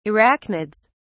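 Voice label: "arachnids", British English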